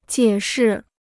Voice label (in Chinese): "解释", Chinese